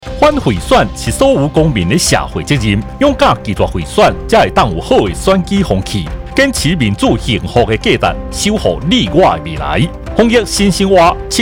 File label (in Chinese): 反賄選台語; 111年法務部反賄選